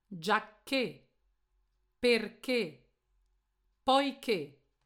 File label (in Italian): accento acuto